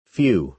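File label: "few", American English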